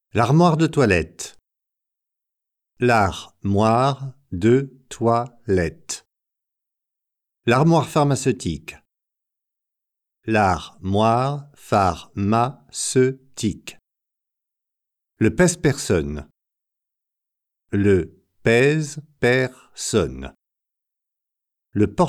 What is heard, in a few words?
Bathroom la salle de bain, talkinfrench